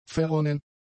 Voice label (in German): "verronnen", german; adjectives